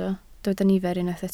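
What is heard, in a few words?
"nifer" Welsh, spoken word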